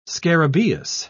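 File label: "scarabaeus", British English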